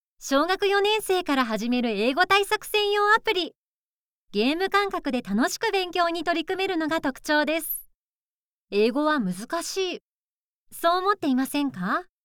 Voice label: female